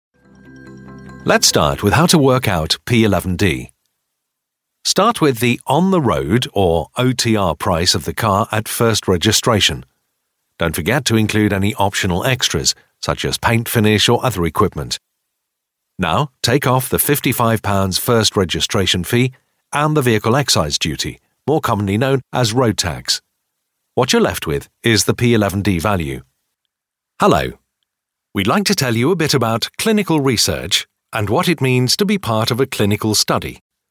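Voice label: explainer, male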